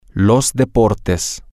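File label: male, spanish; the Sports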